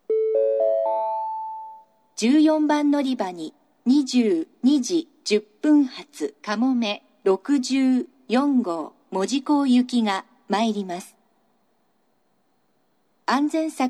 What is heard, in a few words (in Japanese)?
長崎 西九州新幹線ホーム 自動放送, 14番のりば接近予告放送; かもめ64号 門司港行き